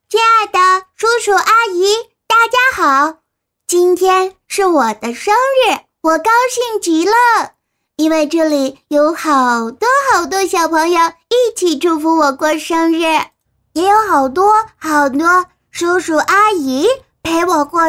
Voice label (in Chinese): 女 动漫 女童小童